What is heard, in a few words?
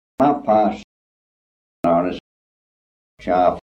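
kent goudhurst male bath, Kent accent in the 19th century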